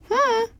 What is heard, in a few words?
Emote Female Hello; gamerip